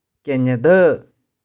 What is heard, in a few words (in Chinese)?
"kih4nih4deu1", 玉環